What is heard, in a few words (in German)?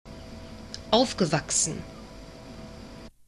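"aufgewachsen", German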